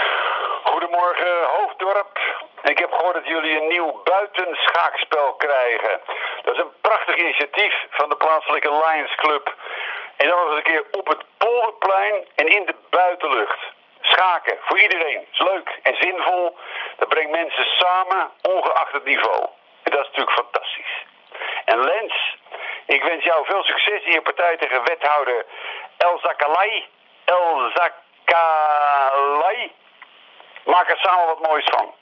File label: voicemail